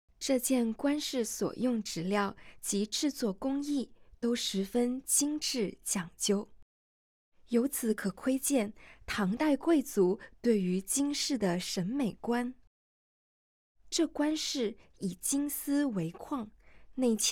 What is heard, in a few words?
Putonghua